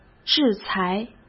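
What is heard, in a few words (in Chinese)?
"制裁", Mandarin Chinese; hsk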